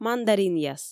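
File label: "мандаринъяс", Komi, female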